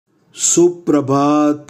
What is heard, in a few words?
Gujarati Good morning; Greetings